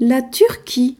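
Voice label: "turkey", French; gcompris-data; geography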